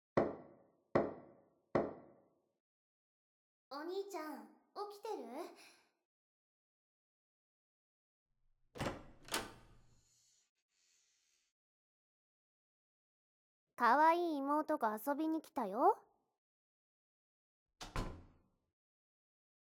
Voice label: tsundere, ASMR